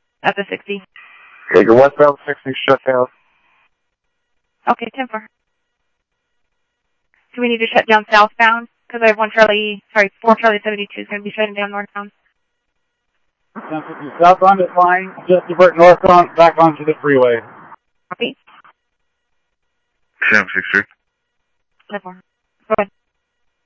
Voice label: Mesa Simulcast police; jumper